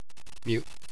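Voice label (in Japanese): "myu", Japanese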